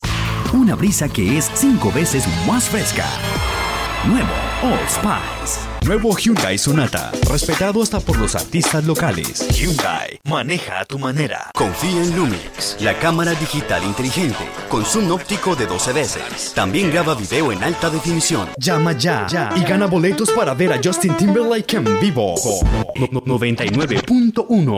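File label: Spanish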